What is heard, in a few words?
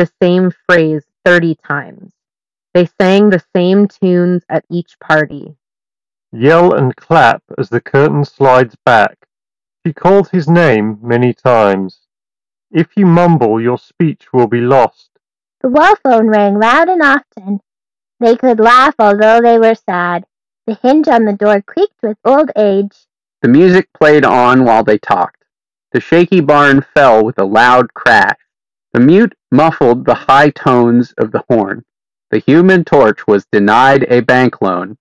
Moondrop Golden Ages Ideal microphone sample